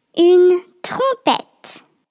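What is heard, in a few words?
French trumpet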